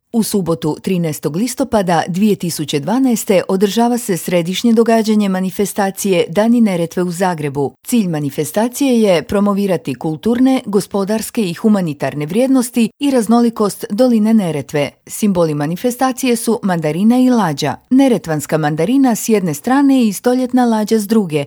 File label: hr informative